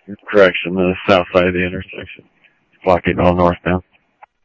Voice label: Police, scanner; H-Scottsdale; ScottsdalePolice